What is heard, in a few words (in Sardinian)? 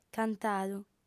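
"cantadu", female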